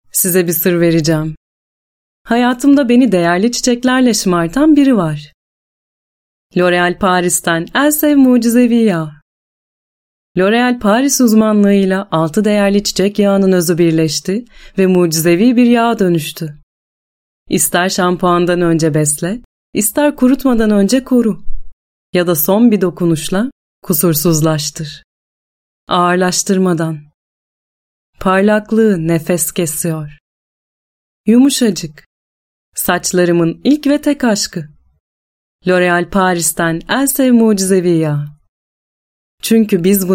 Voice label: Turkish Ad, Turkish Female; LOREAL